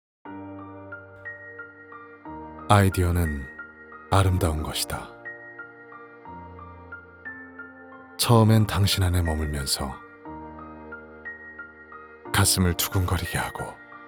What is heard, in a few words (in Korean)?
차분하고 신비한 톤; 아우디